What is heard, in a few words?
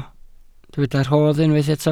"rhodd", welsh